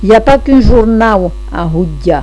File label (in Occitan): "hotjar jornau", gasconha